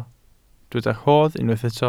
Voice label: "rhodd", welsh